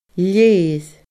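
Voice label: "leir", Scottish Gaelic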